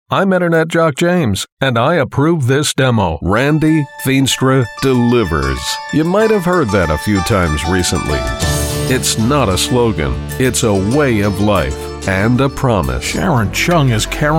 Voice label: Politics, Political Ad Voiceover